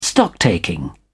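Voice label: "stocktaking", English, British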